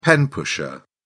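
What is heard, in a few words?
"pen pusher", English, British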